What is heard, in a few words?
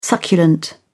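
"succulent", British English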